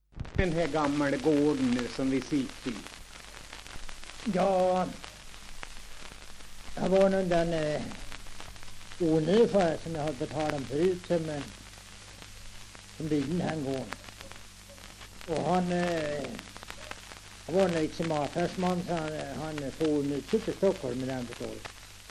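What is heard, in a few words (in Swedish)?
Tuna Medelpad, svenska sagor dialekter; Folkminnen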